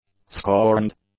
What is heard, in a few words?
"scorned", English; adjectives